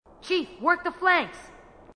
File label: female marine; h2dialogue